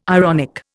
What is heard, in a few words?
"ironic", Indian